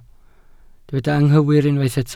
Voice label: "anghywir", Welsh